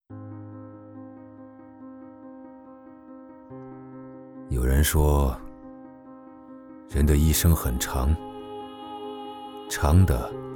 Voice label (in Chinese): 男; 匠心质感·岁月演绎